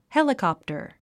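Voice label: "helicopter", English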